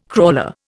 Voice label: "crawler", Indian

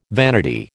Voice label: "vanity", American

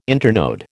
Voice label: "internode", American

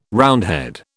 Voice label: "Roundhead", British

